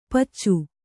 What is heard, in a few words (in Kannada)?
"ಪೆಚ್ಚ", Kannada